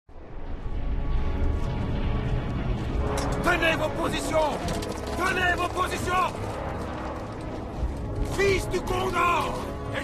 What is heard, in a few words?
event french; Minecraft; Votive Content